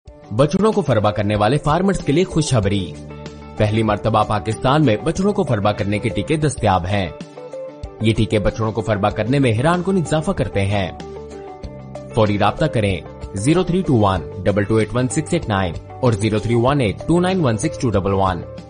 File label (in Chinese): 乌尔都语男 外语 小语种 样音